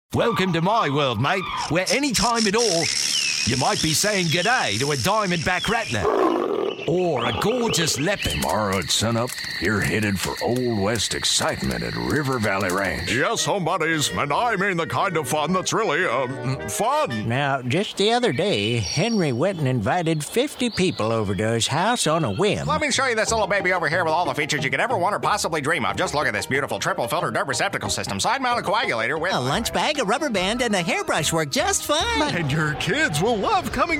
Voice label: Characters Male; commercial voiceover

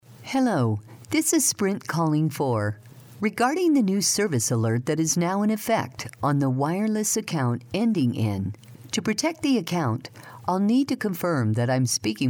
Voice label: Phone Hold IVR Female; Narration

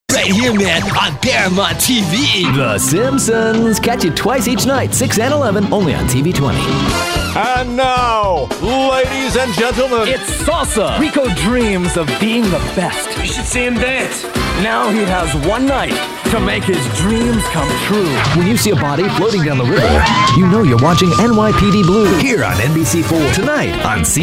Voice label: PROMO VO

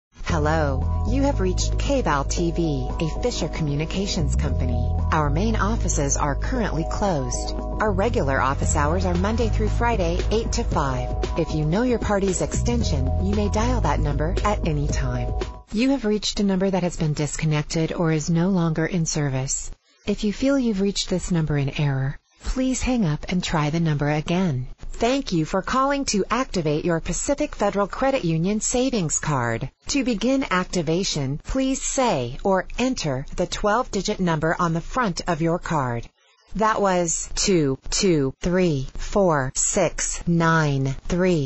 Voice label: Phone Hold IVR Female; Narration, Corporate, Phone Hold/IVR

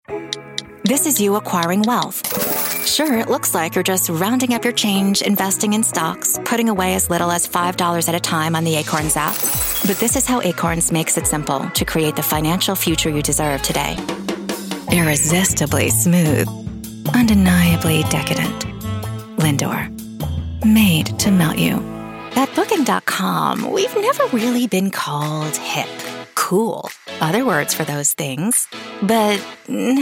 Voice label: commercial; Commercial Voiceover

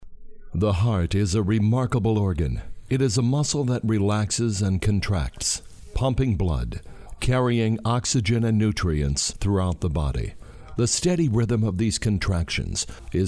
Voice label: Narration Medical Male; commercial voiceover

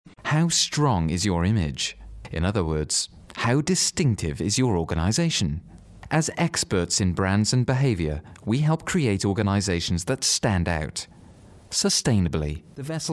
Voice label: Narration Male

